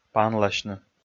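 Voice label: "панласьны", Komi, male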